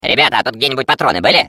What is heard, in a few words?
Defective Turret, ru; turret return defect fail